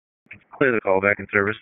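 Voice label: Wake County EMS Dispatch, p25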